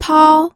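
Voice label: "pao", Mandarin Chinese, first tone; pinyin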